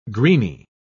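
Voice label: "greeny", British English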